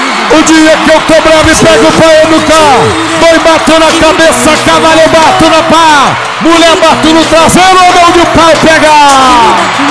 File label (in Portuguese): Rodeios Versos narrados; Esportes; pau pega